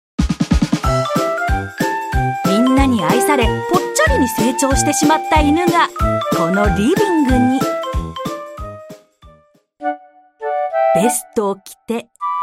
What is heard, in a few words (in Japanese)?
TVバラエティ（ショート）